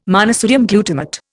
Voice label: "monosodium glutamate", Indian